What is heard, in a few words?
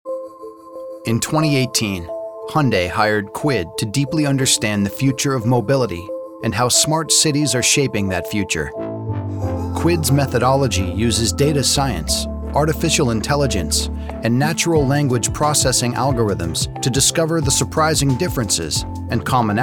narration men